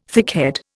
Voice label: "thickhead", Indian